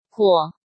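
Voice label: "Huò", Chinese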